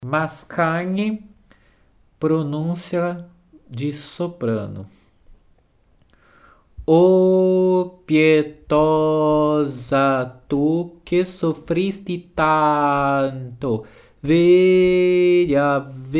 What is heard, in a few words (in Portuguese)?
Ave Maria Leitura Ritmica; Mascagni